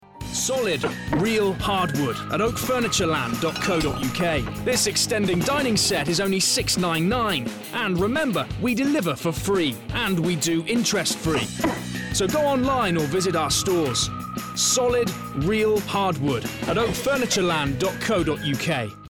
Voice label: advertising voiceover